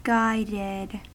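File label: "guided" US English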